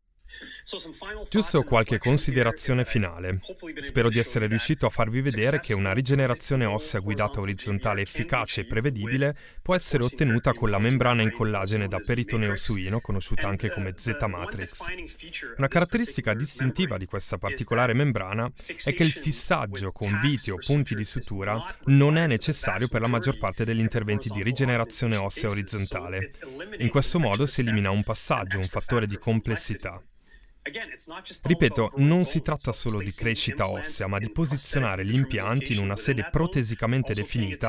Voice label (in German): nachverdolmetschung en it, voice over